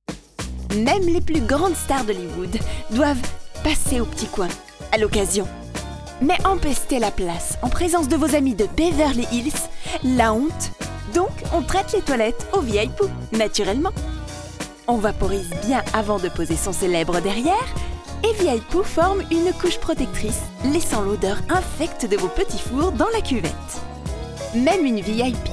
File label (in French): PUB comedie